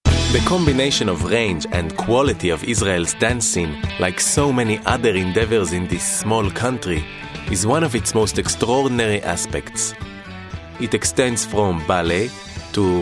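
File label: Hebrew English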